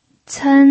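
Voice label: "cen", pinyin, first tone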